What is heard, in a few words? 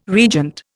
"regent", Indian